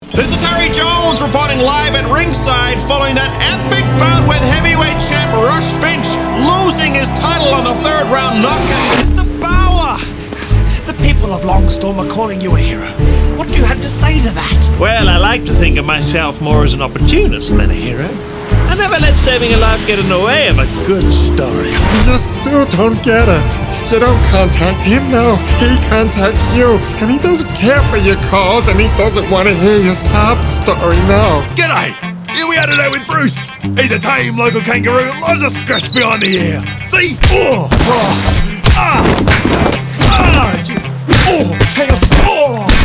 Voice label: Character